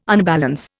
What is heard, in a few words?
"unbalance", Indian